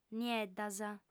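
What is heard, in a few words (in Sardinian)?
"nieddas", female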